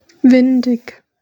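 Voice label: "windig", German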